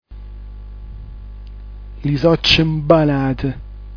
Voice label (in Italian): "lisoccmbanat", dialetto giulianova